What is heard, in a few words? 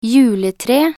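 "juletre", Norwegian Bokmål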